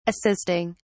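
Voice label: "assisting", British English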